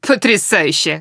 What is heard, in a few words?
reaction music, woman; Stalker Anomaly; characters voice human reactions